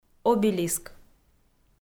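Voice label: "обелиск" Komi-Zyrian, female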